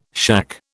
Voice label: "shack", British

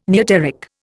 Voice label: "neoteric", Indian

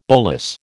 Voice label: "bolus", American

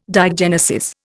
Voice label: "diagenesis", Indian

